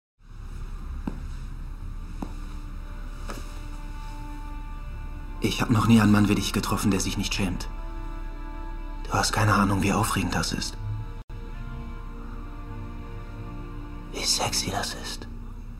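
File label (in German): Schauspiel Sanft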